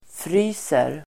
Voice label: "fryser", Swedish

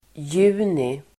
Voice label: "juni", Swedish

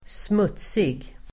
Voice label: "smutsig", Swedish

